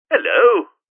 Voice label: "Hello", Male, greetings; Greetings And Goodbye